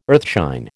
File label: "earthshine", American